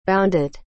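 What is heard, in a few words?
"bounded", English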